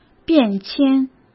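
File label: "变迁" Mandarin Chinese; chinese-flashcards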